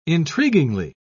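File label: "intriguingly", English, uk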